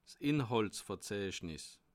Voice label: "inhaltsverzeichnis", alsace

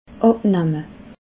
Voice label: "abnamme", alsace

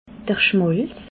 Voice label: "schmalz", alsace

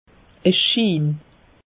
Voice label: "schiin", alsace; meteo

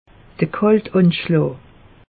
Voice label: "kalteanschlaa", alsace; meteo

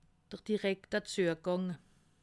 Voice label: "zuegang", alsace

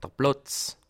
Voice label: "platz", Alsace

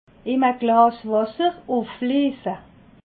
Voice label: "glass", alsace

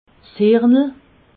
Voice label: "hirnel", alsace

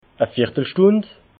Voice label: "viertelstund", alsace

